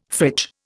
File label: "fridge", Indian